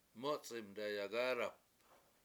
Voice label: "mUc71m dayagAAdap", washo; weeds facing up